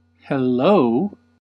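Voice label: "hello", American English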